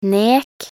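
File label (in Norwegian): "nek", Norwegian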